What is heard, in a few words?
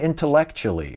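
"intellectually", US English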